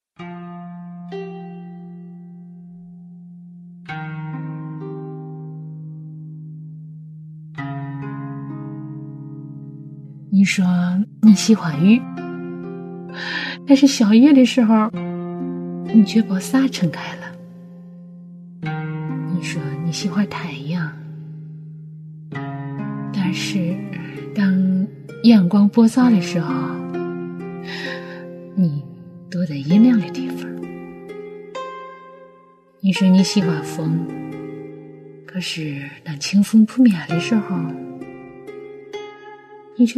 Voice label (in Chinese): 动画 角色 河北方言, 女